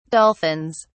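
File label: "dolphins", British English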